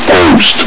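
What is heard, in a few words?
"post", male; hl2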